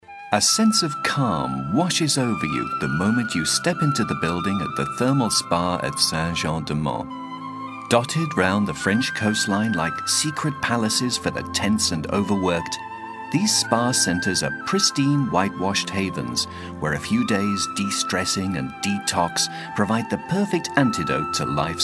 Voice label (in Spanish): EN masculino corporativo